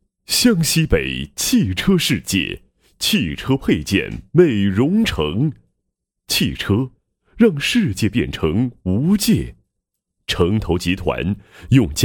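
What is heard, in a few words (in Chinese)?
企业宣传汽车世界宣传配音; 湘西北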